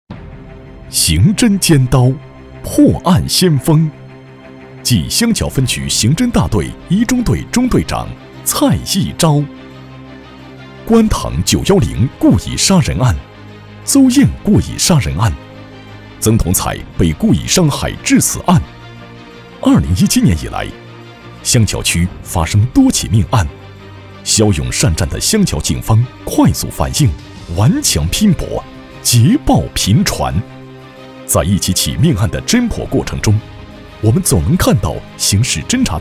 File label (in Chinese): 男 政法人物篇 刑侦尖刀